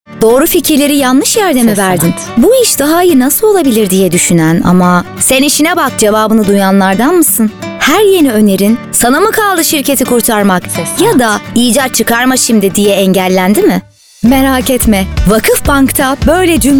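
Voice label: Turkish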